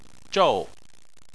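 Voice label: "zhou" Chinese, fourth tone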